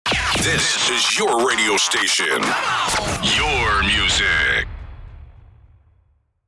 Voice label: Male radio voice dj sweeper this is your radio station; broadcasting